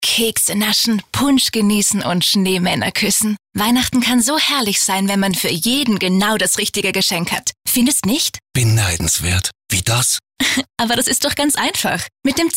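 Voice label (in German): Austrian Werbung Dynamisch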